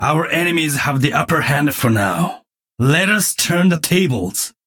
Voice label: jeers; genji